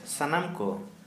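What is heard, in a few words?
Santali male together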